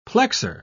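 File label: "plexor", British English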